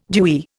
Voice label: "dewy", Indian

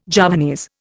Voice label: "Javanese", Indian

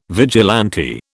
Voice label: "vigilante", British

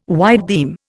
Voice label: "whitebeam", Indian

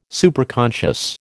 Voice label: "Superconscious", American

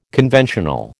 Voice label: "conventional", American

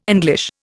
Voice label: "English", Indian

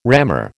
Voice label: "rammer", American

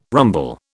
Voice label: "rumble", British

